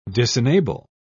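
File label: "disenable", British English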